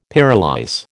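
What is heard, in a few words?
"pyrolyse", American English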